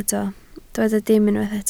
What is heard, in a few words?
"dim", Welsh